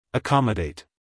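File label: "accommodate", American English, male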